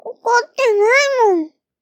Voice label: angry